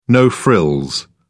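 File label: "no-frills", British English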